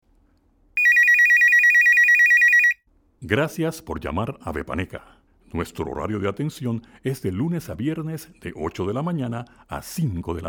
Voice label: contesta espanol

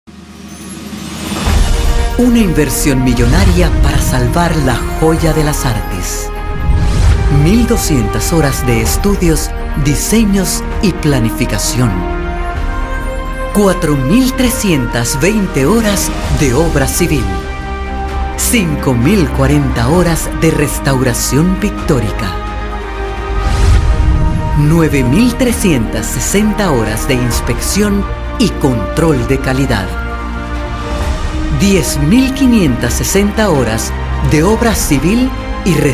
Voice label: narrativa institucional

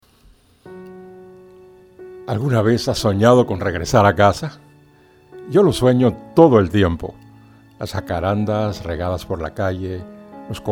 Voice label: narra

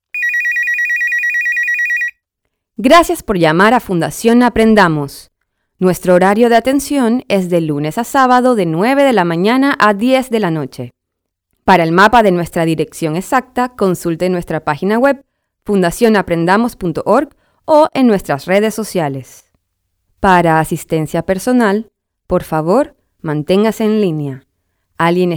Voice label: cont esp

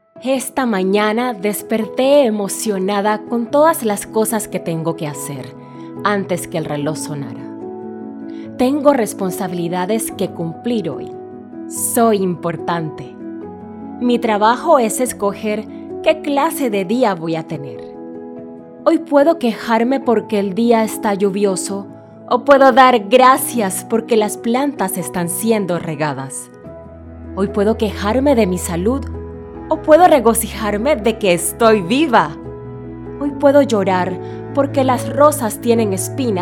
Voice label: narrativa

